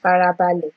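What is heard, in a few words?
"farabale", Nigerian Pidgin, female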